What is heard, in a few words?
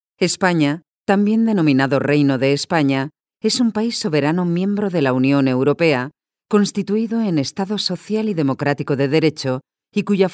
female spanish castilian